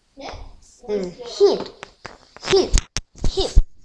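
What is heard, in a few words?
"hip", spoken word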